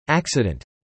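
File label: "accident", English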